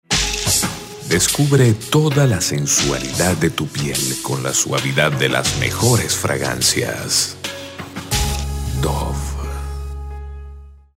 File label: spanish latin venezuelan commercial soft